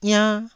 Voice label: "iann1", Taiwanese syllable